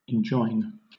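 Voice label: "enjoin", British English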